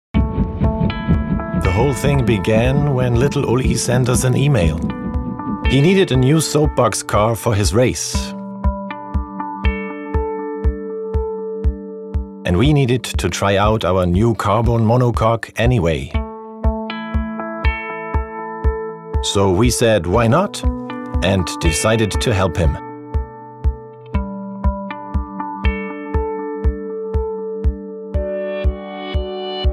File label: english automotive; actor de doblaje profesional